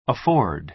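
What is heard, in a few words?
"afford", American English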